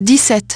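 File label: "seventeen", English; iOS 4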